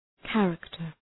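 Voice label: "character", English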